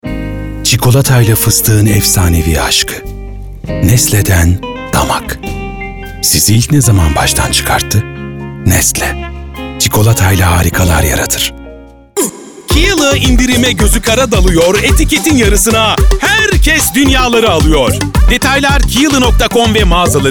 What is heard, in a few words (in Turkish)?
Reklam